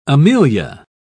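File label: "amelia", English, British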